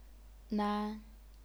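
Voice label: "nan", first tone, chinese pinyin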